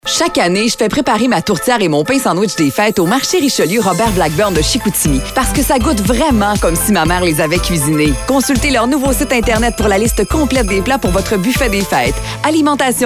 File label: radio